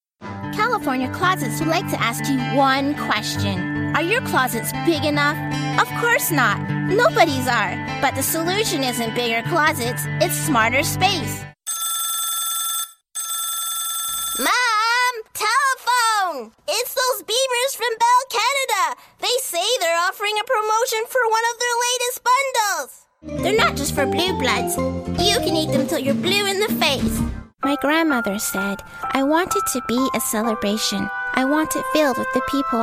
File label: Kids Commercial; Voice Realm